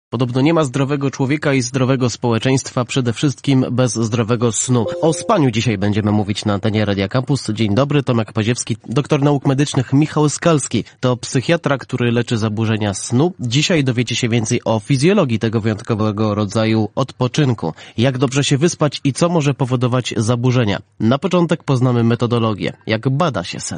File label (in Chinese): 波兰语男, 外语; 小语种